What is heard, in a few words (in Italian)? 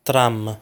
"Tram", Italian; lesson 6 articles plural